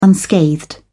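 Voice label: "unscathed", English, British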